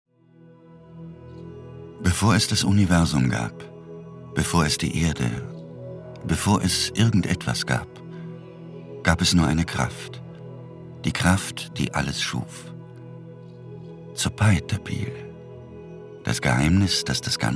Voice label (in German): kommentar